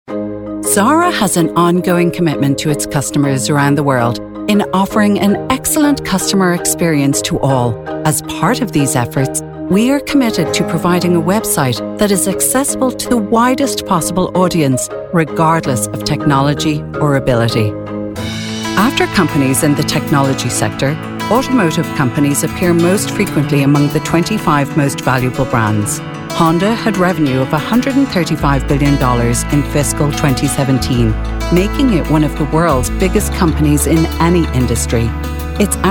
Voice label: corporate narration